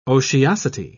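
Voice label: "otiosity", British English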